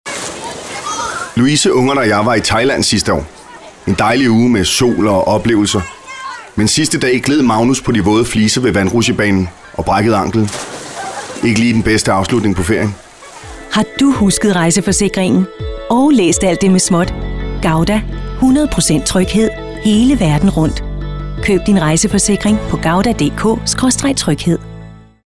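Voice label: danish male commercial, voice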